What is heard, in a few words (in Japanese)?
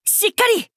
「しっかり！」, 男の子 王道系少年主人公 戦闘ボイス